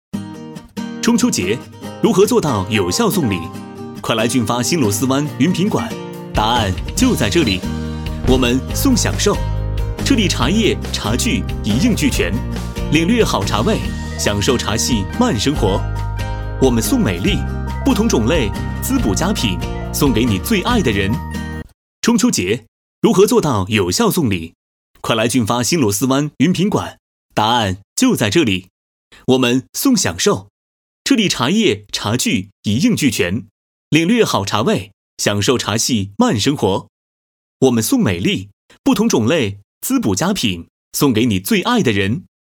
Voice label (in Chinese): 男 中秋送礼; 中秋节样音; 俊发新螺蛳湾云品馆